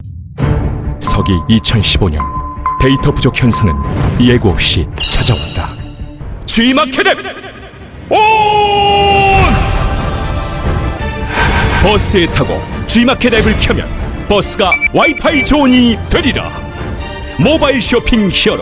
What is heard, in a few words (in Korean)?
광고 앱 진중한 코미디 20대 30대; G마켓